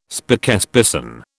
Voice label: "spokesperson", British